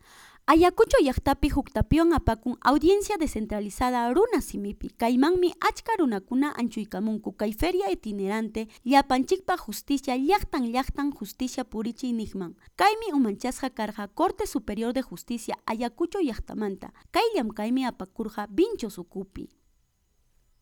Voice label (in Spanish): VOZ EN OFF, QUECHUA; ayacucho; LLAPANCHIKPAQ JUSTICIA VINCHOS